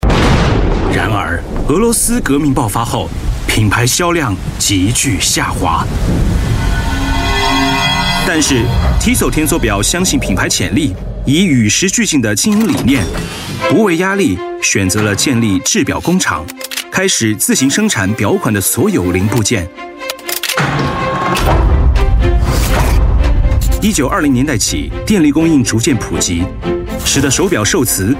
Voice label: chinese voice over male narrator